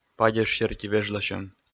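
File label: "падеж серти вежласьӧм", Komi, male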